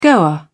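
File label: "goer", British English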